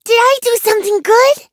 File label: levelup en; cookie run